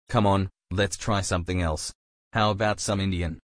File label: male